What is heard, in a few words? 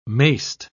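"mayst", British English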